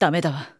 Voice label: "No" Japanese; Lara; Playable Tutorial Level